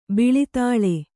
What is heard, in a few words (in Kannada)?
"ಬಿಳಿದಾಳೆ", Kannada; biḷi tāḷe; ಬಿಳಿತಾಳೆ; noun